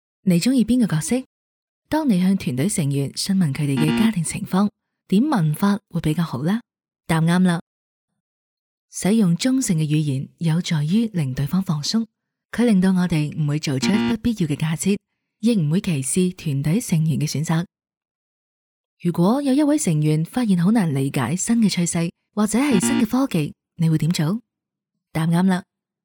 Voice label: 角色对话 narrator